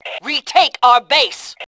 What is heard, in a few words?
"base retake", Fem2; Tribes2